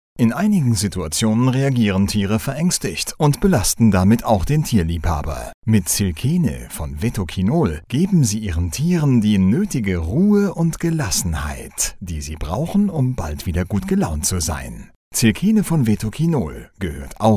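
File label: German, male, commercial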